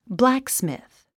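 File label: "blacksmith", English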